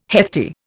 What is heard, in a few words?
"hefty", Indian